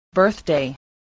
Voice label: "birthday", spelling